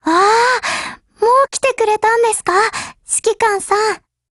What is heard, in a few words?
HELLO JP; GF Alarm; M1897